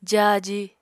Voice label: "jáaji", Apatani

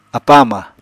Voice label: "apa-ama", Apatani

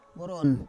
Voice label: "voron", Roon

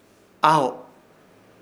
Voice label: "AHO", Ishir Chamacoco; dentro

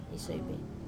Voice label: "soive", bathe, Wamesa

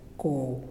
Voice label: "fire", Muyuw; Lae Unitech

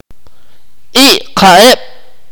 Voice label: "breathe", Akateko

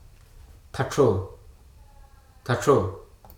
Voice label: "White capped Redstart", Hruso

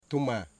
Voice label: "hair", Wamut

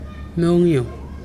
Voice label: "heart", Pingelapese

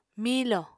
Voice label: "miilò", Apatani

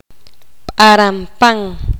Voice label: poqomam; mariposa

